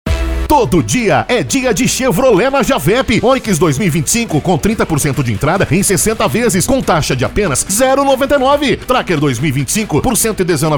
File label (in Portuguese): SPOT 15 SEGUNDOS